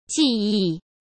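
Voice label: "技艺" Mandarin Chinese; hsk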